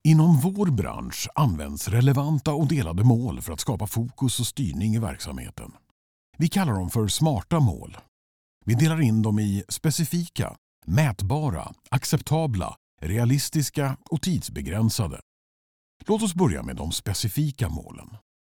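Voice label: info, Swedish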